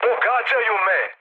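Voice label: Serbian aircraft damaged mood med, speech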